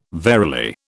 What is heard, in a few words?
"verily", British